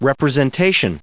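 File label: "representation", American English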